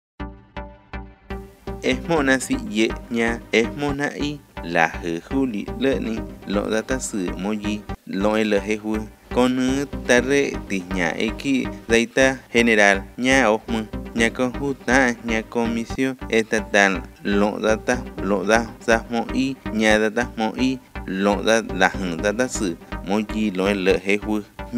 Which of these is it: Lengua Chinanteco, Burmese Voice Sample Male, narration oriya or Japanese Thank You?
Lengua Chinanteco